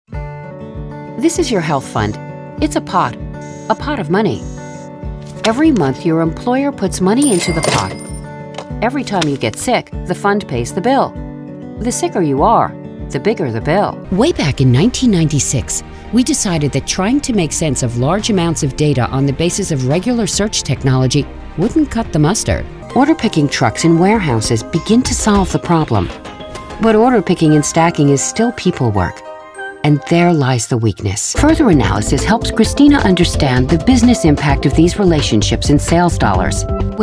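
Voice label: American English explainer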